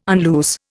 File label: "unloose", Indian